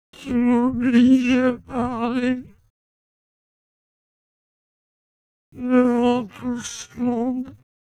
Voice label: Neutral sad